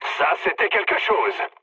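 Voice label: French trick mood low; War Thunder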